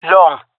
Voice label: loc long, jungle fem epic; vo; agents; Source Model Packs; Counter Strike Model Pack; CS2